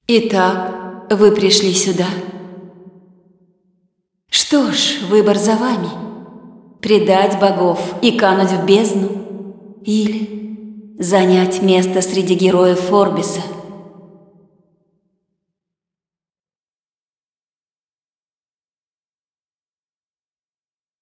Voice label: cinematic voice, ru; lineage2